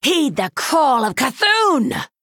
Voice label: Female Night Elf